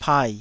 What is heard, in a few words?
"pai3", taiwanese